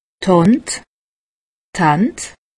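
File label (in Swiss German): "hand", Alsatian; medecine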